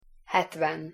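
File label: "hetven", Hungarian pronunciation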